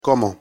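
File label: "como", Spanish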